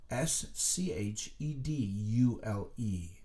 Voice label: Schedule, letters being spelled out

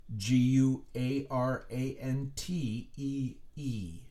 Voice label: Guarantee, letters being spelled out; Fill in the gap

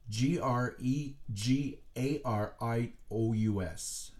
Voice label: Gregarious, letters being spelled out

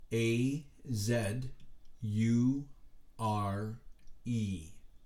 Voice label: Azure, letters being spelled out

